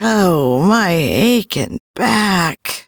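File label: Ambient Female Sweeper, Dialog; Duviri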